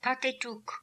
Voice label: "Patetook", pronounciations; Fourth Way; Beelzebub

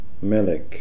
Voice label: "Melik", pronounciations; Fourth Way; Beelzebub

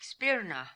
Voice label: "Spirna", pronounciations; Fourth Way; Beelzebub

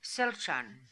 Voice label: "Selchan", pronounciations; Fourth Way; Beelzebub

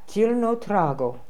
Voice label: "Zilnotrago", pronounciations; Fourth Way; Beelzebub

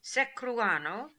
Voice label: "Seccruano", pronounciations; Fourth Way; Beelzebub

